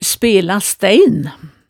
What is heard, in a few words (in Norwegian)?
"spela stæin", hemsedalsmaalet